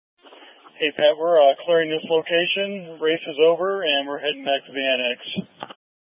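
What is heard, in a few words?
Incident Tactical, scanner audio recording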